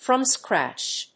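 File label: "from scratch", English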